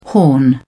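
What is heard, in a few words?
"horn", British English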